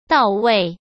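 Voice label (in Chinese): "到位", Mandarin Chinese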